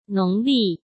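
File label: "农历", Mandarin Chinese; chinese flashcards; hsk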